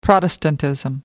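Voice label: "protestantism", British English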